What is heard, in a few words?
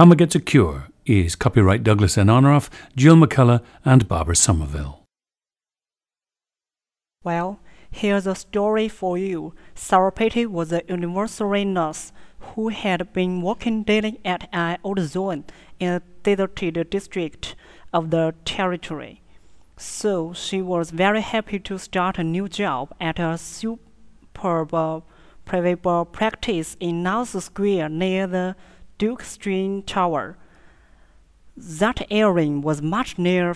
gansu